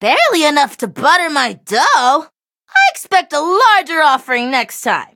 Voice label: levelup, en; Cookie Run